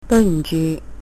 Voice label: sorry, Cantonese